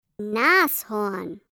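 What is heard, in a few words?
GER Nashorn rhinoceros, German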